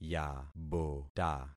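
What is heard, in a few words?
"yabota", concatenated syllables; Affixing experiment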